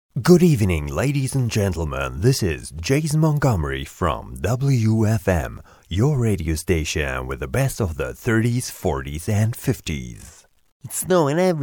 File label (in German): stimmenmix englisch